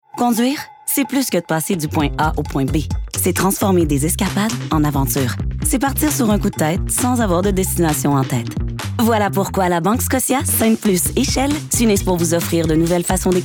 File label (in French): Radio; Banque Scotia Windmill; FR